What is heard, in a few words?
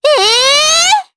Vox jp; Estelle; kingsraid